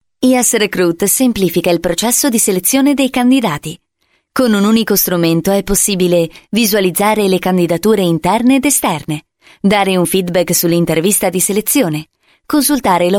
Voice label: Italian

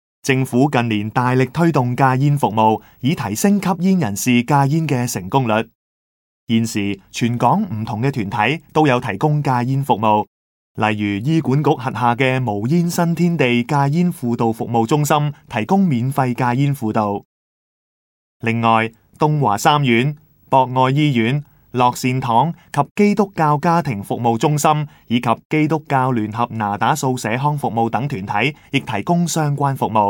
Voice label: Cantonese

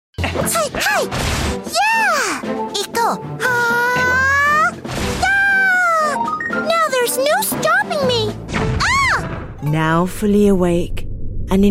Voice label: UK English Games Characters

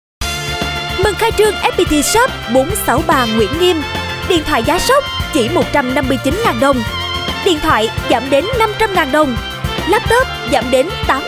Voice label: South Southern Female Female, Vietnamese

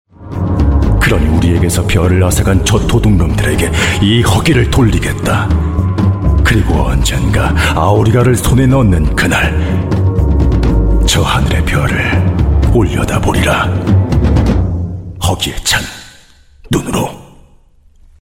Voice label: Korean Male Game Trailer

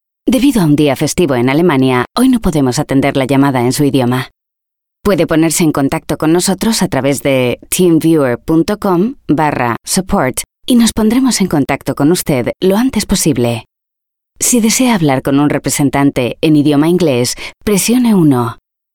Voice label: European Spanish Telefone; Spanish European Female

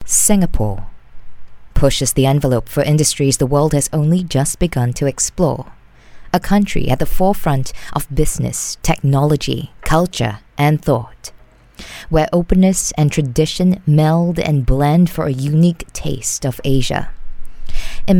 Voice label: Asian English corporate branding

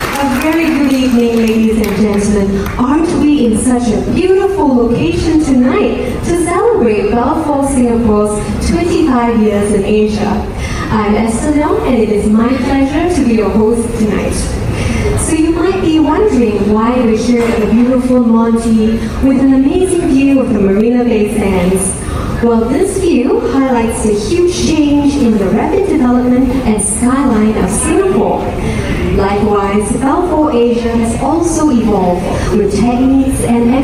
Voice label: Asian English Hosting; Belfor Asia

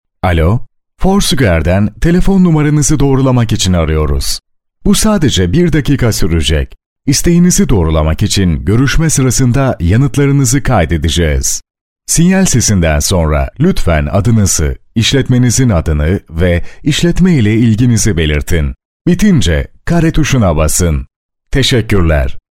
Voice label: Turkish Male

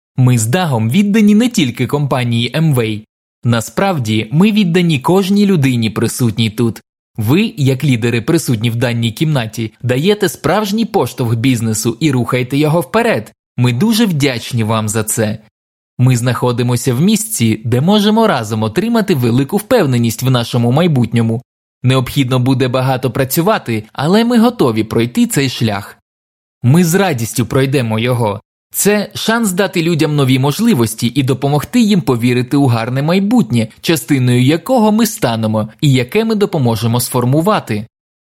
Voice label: Ukrainian, Ukrainian Male

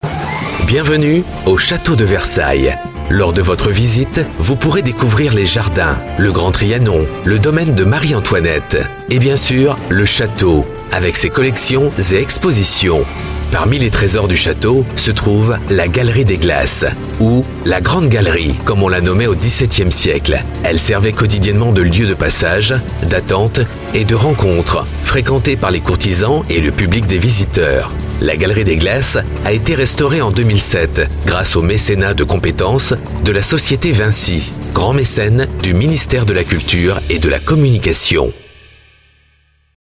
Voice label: French M; Versailles

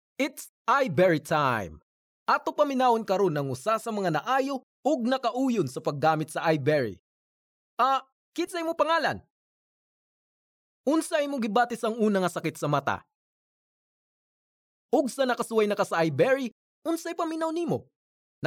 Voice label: Cebuano Voice Sample Male; Muntinlupa

